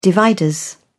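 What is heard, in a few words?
"dividers", British English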